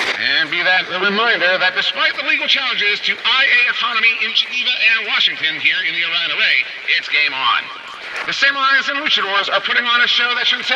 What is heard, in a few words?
Transmission Echoes